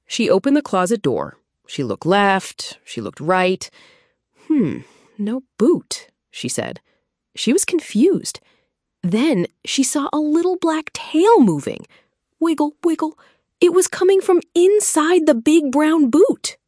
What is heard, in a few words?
narration; o gato no sapato; historia en A2